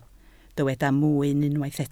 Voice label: "mwyn", Welsh